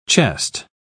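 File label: "chest", English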